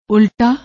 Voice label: "ulta", Punjabi; ਉਲਟਾ

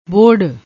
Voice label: "bohadd", Punjabi

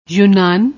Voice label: "yunan", Punjabi

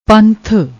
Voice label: "panth", Punjabi

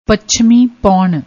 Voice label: "pachmi pon", Punjabi

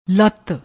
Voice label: "latt", Punjabi; ਲੱਤ

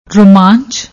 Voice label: "romanch", Punjabi

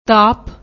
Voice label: "taap", Punjabi; ਤਾਪ

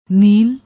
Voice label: "neel", Punjabi

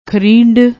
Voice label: "khreend", Punjabi; ਖਰੀਂਡ

